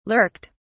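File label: "lurked", British English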